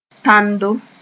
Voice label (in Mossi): "tãndo", moore